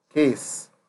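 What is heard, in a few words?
"case", Nigerian Pidgin, male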